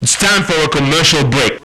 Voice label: Male FX Vocals; Houseworx